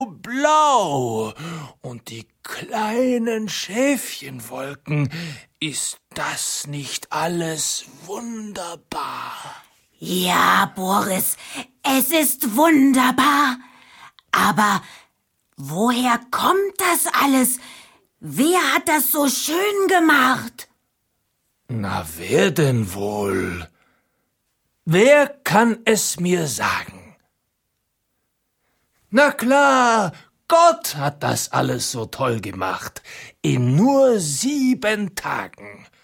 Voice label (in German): Erzaehler